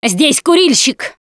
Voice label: warn smoker, russian; Left 4 Dead 2; Producer; Survivor Voice

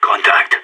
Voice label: radio enemy spotted, player vo sas

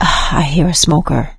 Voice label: heard smoker, survivor voice; Left 4 Dead 2; producer

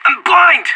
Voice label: blinded, player vo; Counter-Strike Global Offensive

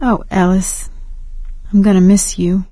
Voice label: survivor mourn, survivor voice; Left 4 Dead 2; producer; ellis

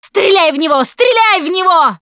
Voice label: battlecry tank, russian, Survivor Voice Producer

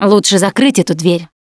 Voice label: close the door, russian, Survivor Voice Producer

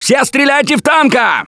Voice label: battlecry tank, russian; Gambler

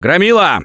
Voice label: Warn Charger, russian; Gambler

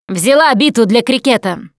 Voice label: melee weapons, russian, Survivor Voice Producer

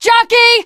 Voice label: jockey, teen girl; Left 4 Dead 2

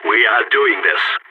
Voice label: on a roll brag, balkan; Counter-Strike Global Offensive

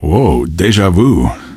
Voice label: question, male; Garry's Mod; hl2

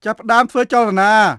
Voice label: Khmer Order; Beyond the Sword; Units

